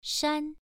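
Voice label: "shan" Mandarin Chinese, first tone, pronunciation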